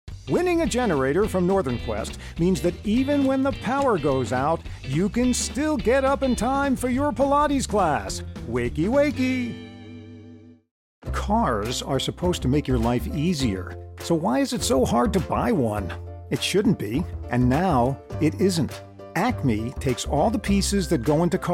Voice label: voice over